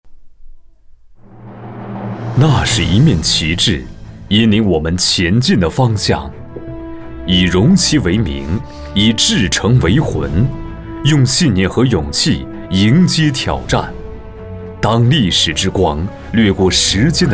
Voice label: 宣传片男大气浑厚集团公司宣传; 浑厚大气男个配

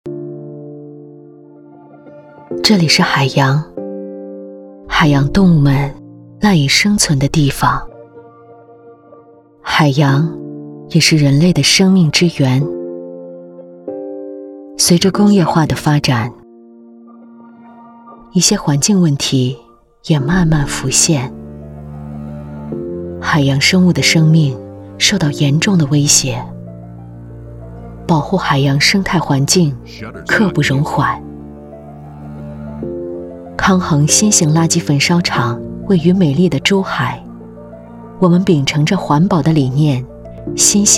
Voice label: 旁白 低沉 保护海洋

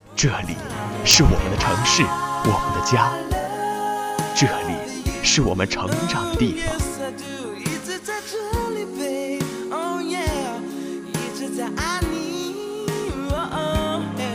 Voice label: 广告音频

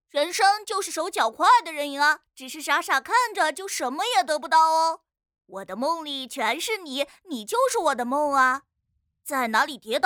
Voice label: 正太试音

